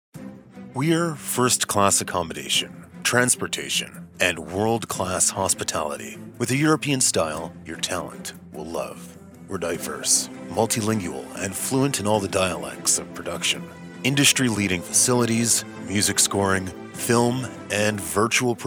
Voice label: Quebec Warm